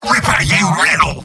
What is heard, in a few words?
sniper positive vocalization; tf2; mvm